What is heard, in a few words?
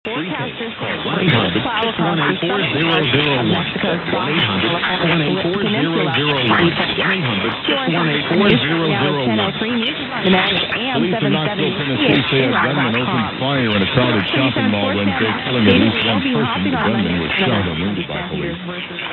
770, mw dxing; chqr; calgary ab